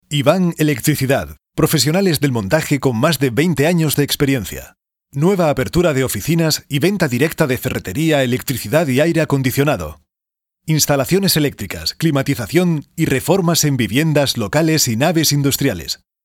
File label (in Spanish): locutor castellano